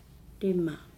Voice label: "five", Nukuoro

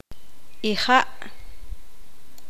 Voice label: poqomam; semilla

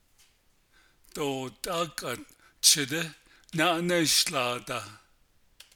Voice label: navajo; I don't want to fix your car

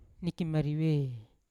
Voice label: "nikimarive" to dream, Yawa

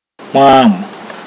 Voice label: "fish", pohnpeian

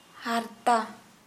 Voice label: Santali skin

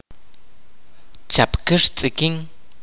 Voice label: kingfisher, kaqchikel

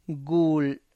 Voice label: "gul", tlacochahuaya

